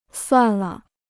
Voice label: "算了", Chinese